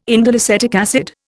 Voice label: "indoleacetic acid", Indian